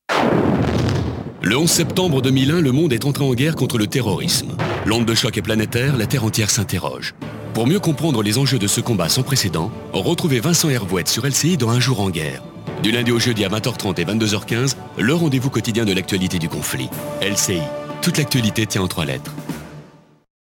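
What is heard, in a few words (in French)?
PUB LCI, voix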